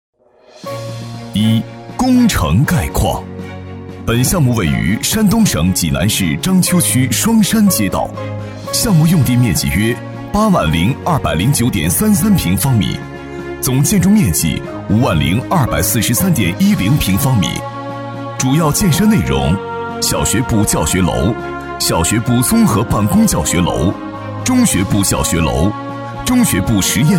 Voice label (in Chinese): 男 项目汇报 大气稳重, 厚重有力 大气浑厚